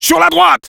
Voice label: Sniper head right, fr